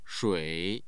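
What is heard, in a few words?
"shui3", Mandarin Chinese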